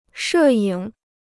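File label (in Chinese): "摄影" Chinese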